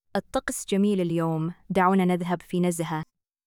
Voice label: arabic; supertonic